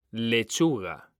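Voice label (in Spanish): "lechuga", Spanish, male; mekanta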